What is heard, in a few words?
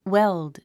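"weld", English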